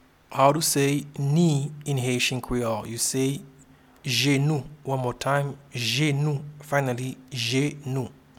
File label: "Jenou", Haitian Creole; Knee